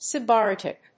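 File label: "sybaritic", English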